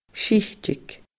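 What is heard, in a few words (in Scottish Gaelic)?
"sitig", Scottish Gaelic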